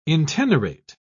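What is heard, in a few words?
"intenerate", British English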